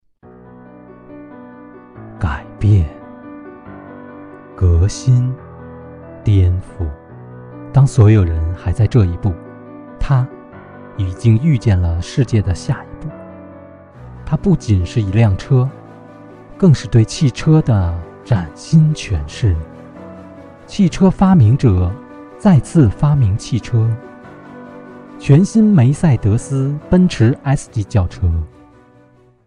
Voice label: 汽车广告

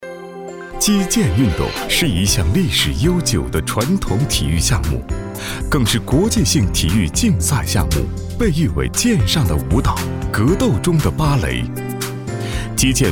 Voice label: 宣传片大气时尚

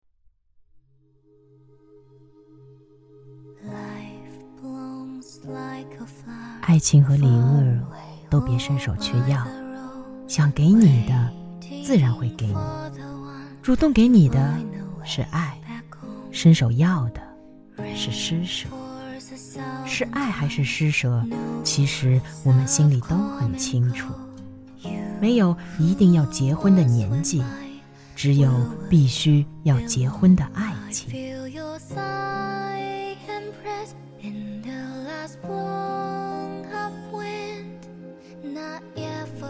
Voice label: 情感语录样音

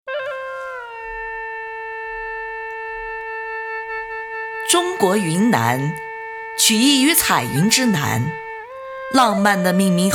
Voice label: 云南宣传片开头

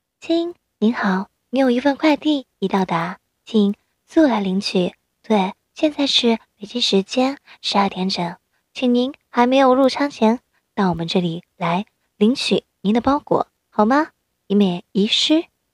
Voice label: 少女; 亲您的包裹到了

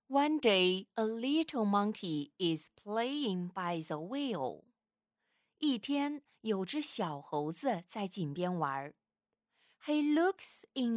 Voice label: 中英儿童绘本

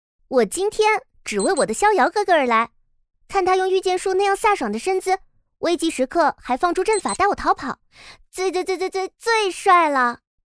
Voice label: 少女

